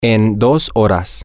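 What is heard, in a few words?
in 2 hours, Spanish, male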